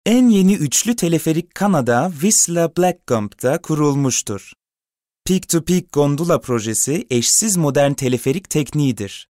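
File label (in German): Turkish, Kommentar, Neutral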